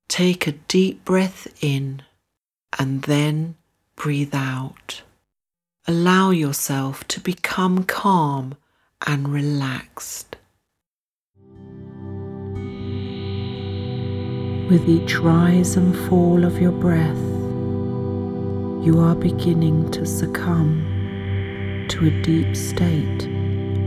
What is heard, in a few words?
Meditation